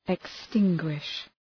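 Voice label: "extinguish", English; {ık’stıŋgwıʃ}; Ρήμα; σβήνω; εξολοθρεύω; εξοντώνω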